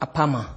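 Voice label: "apama", Nigerian Pidgin, male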